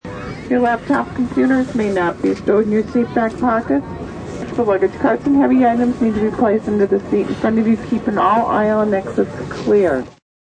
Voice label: Stewardess announcement regarding laptops on plane.; Airplanes